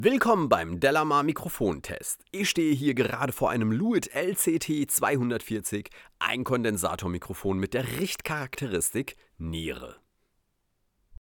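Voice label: speech male, Lewitt LCT240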